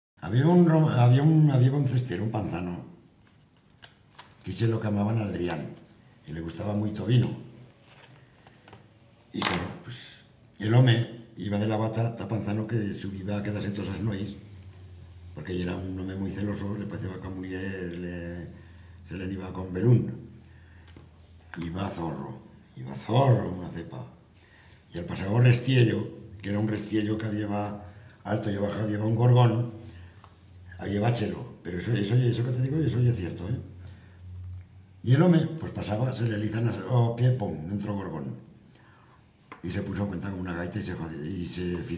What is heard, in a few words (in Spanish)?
aragonés